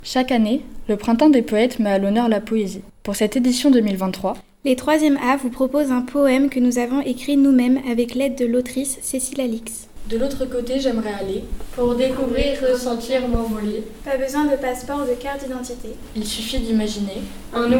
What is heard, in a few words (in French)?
poème